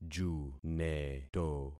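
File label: "juneto", concatenated syllables; Affixing experiment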